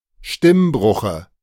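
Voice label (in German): "Stimmbruche", German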